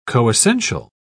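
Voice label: "coessential", English, British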